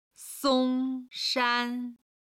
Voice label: "song1shan1", chinese

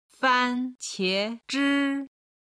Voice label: "fan qie zhi", Chinese

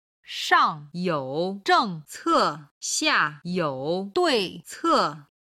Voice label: "shangyouzhengcexiayouduice", Chinese

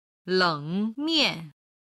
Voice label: "lengmian", Chinese

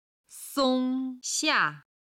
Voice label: "song1 xia4", Chinese

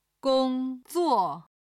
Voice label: "gong1zuo4", chinese

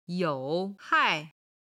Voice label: "you3 hai4", chinese

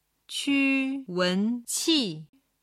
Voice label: "quwenqi", chinese

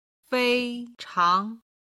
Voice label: "fei1chang2", Chinese

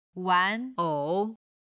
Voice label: "wan2ou3", Chinese

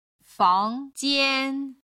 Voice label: "fang2jian1", Chinese

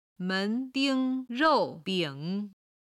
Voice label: "men2ding1rou4bing3", chinese

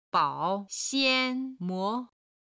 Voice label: "bao3xian1mo2", Chinese

